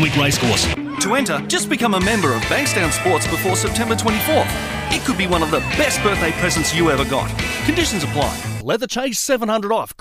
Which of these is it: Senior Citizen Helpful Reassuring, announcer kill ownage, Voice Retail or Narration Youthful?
Voice Retail